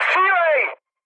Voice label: attack position, speech cze, mood med; War Thunder